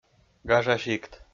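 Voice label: "гажа сикт", Komi, male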